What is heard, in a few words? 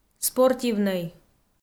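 "спортивнӧй", Komi, female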